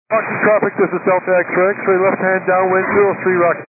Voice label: 122 725MHz, scanner radio